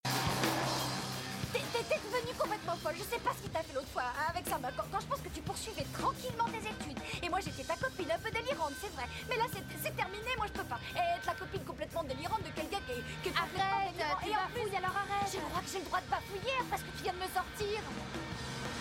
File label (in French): french; dubbed snippets